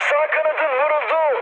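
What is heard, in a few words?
Turkish right wing, mood med, speech; War Thunder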